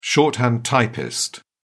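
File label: "shorthand typist", British English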